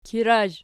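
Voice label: "kirazh" nominative, Archi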